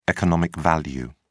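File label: "economic value", British English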